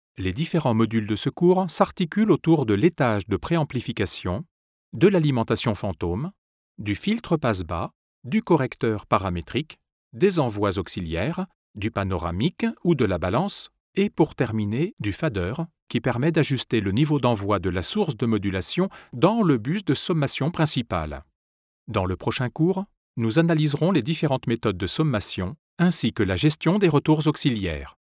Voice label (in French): voix off elearning mixer